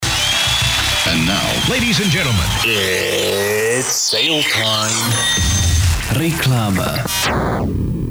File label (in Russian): Reklama